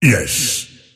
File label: lasthit pain; dota; earthshaker; arcana